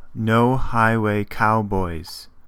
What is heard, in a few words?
En-us no highway cowboys